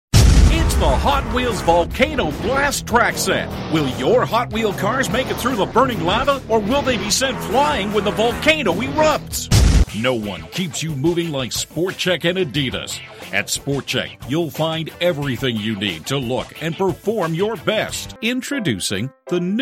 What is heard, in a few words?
Commercial